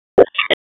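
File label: Police Northwest District Tac, radio; Raleigh; Tac 1; wake; p25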